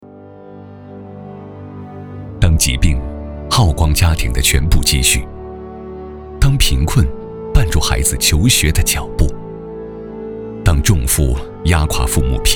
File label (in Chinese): 男 专题; 善行致远